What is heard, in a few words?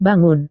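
"bangun", Indonesian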